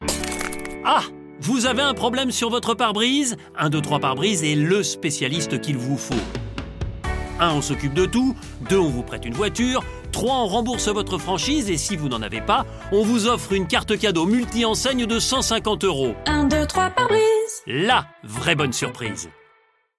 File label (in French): Spot Radio; 123 PARE BRISE